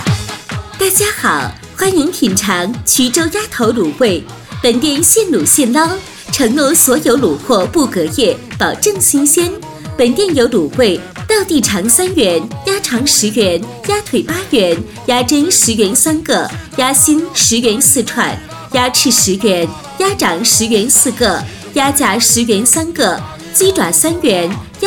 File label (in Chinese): 男声; 衢州鸭头